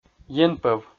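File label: "енпӧв", Komi, male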